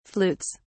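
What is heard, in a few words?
"flutes", British English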